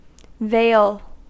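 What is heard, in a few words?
"vale", US English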